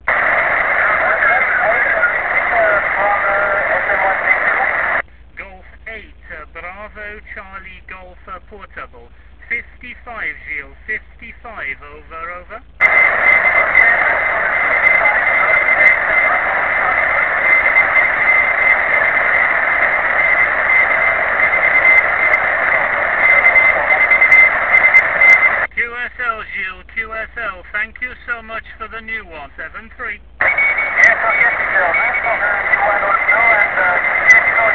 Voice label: qso